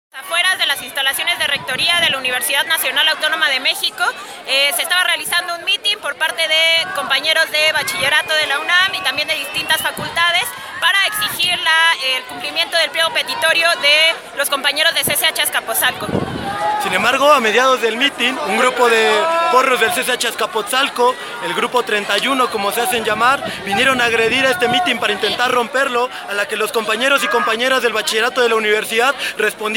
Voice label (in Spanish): Testimonio; Ataque Porril UNAM